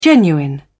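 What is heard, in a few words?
"genuine", British English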